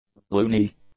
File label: "loony", English; adjectives